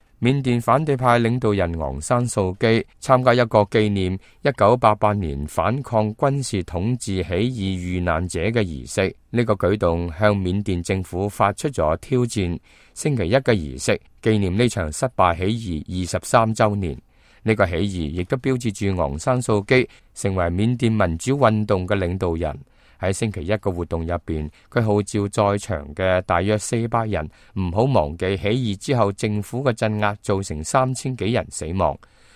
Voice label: cantonese, news